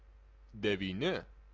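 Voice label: "devini", Lithuanian, male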